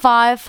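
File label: "five", spoken, female; Techno Trance Essentials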